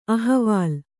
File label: "ಅಹವಾಲ್", Kannada; ahavāl; noun